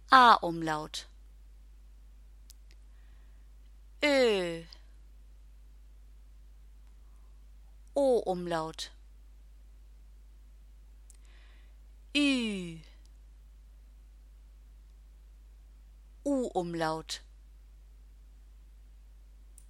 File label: Vowels and Umlaute, German; IGCSE